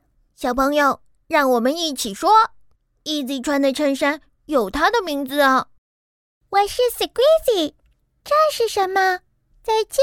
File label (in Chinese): 女 男童 女童 老师